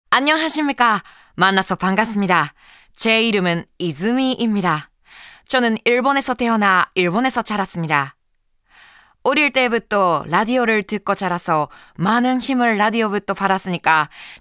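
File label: Narration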